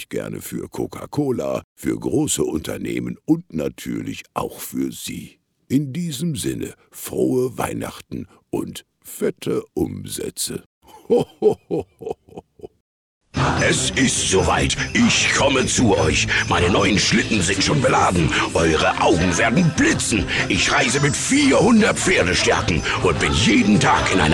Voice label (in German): weihnachtsmann demo; LOFT VOICES